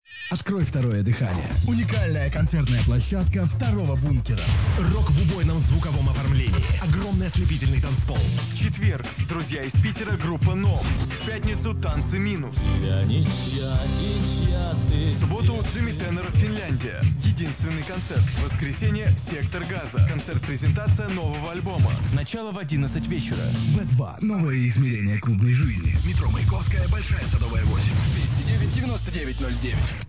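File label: concert advertisement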